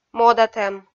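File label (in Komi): "модатӧм", Komi, female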